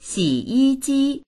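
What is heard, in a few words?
"xǐyījī" Mandarin Chinese; 洗衣机; 洗濯機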